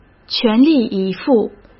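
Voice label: "全力以赴", Mandarin Chinese; hsk; chinese flashcards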